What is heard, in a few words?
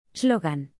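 "slongan", English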